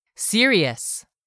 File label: "SERIOUS", english; 3000 words